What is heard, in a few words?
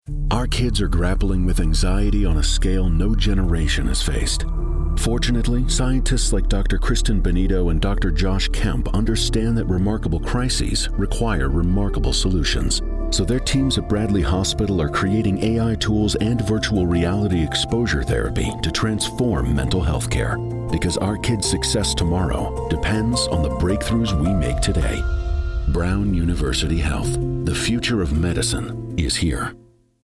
English Radio; Illumination Campaign